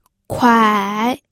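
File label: "kuai", third tone, pinyin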